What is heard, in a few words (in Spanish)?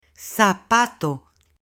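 "zapato", Spanish, female; ROPA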